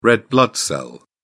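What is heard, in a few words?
"red blood cell", British English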